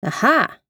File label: Voices Female Discovery Aha; Funky Rustic; FunkyVoices